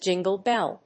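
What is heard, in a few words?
"jingle bell", English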